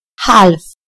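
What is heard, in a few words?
"half", British English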